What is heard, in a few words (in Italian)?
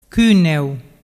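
"cuneu", Vernazzese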